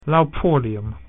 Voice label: "bus", Latin